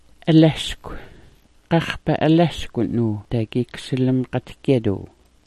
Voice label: "alaskw", smalgyax

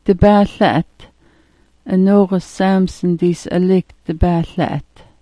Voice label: "dibaala'at", smalgyax

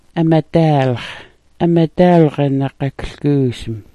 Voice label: "amadaalx", smalgyax

